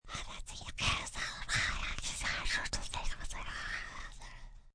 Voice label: Whisper Raspy, Whispering, processed with effects, demonic, scary, intense (human voice); VoiceBox